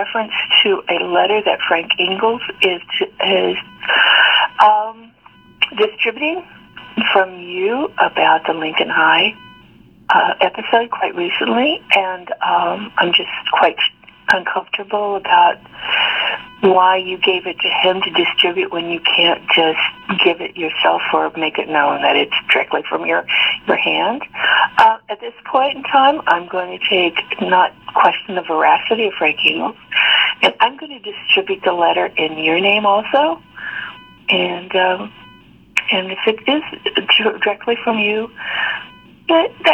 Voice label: message, threatening caller's voice